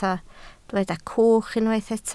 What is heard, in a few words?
"cwch", Welsh